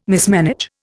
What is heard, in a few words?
"mismanage", Indian